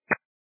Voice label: 122 925MHz, scanner radio